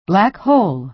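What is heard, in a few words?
"black hole", American English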